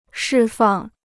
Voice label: "释放", Chinese